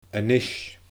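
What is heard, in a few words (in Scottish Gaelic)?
"a nis", Scottish Gaelic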